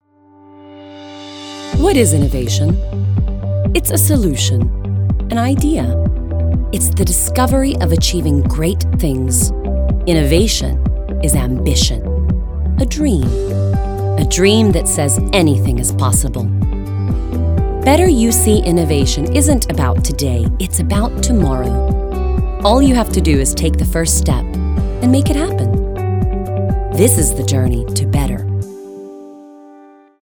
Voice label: Corporate USA